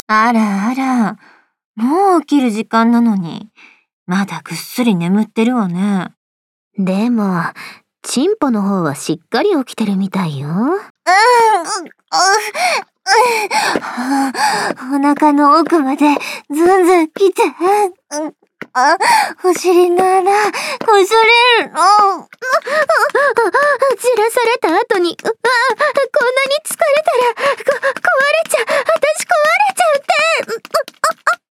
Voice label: voice drama